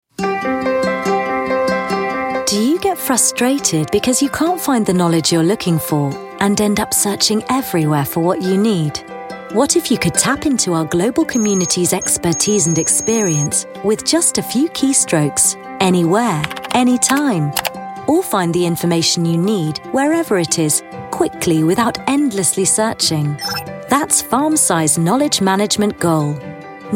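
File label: e-learning, Clear Bright British Voiceover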